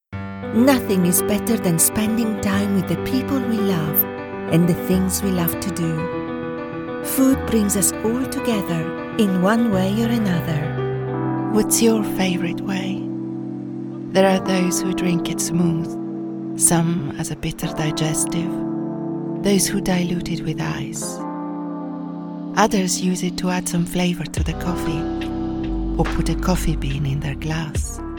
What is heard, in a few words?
english commercial